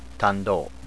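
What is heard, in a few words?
"tando", spoken word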